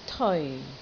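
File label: "teoi4", Cantonese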